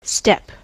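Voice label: "step", US English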